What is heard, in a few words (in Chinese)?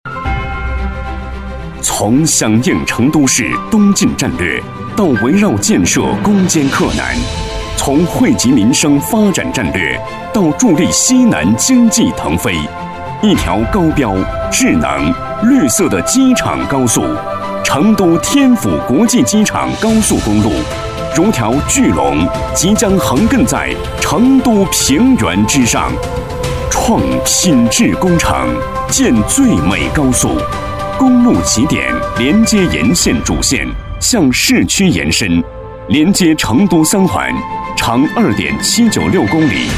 男,专题,自信; 天府国际机场高速